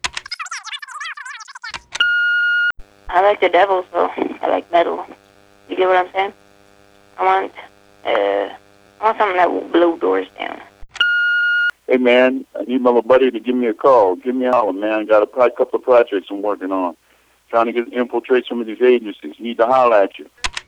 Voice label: Answering Machine